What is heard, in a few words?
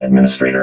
"administrator", robot vox